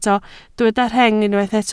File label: "rheng", Welsh